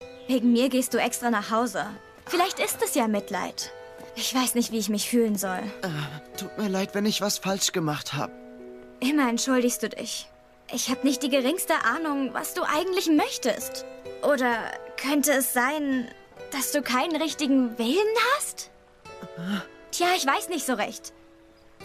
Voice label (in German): Synchron jugendlich irritiert